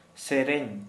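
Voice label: Santali male song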